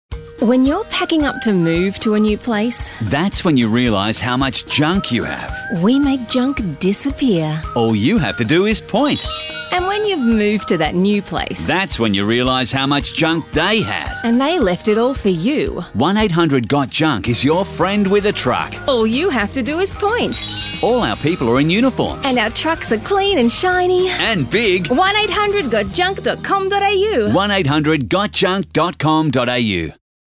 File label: radio campaign; 1800-GOT-JUNK?; radio campaign with a simple question 1800-GOT-JUNK?